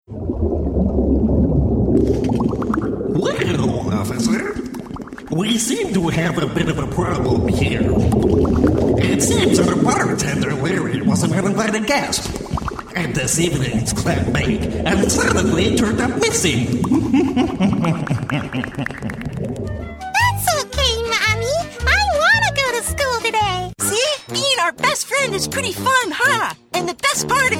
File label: Animation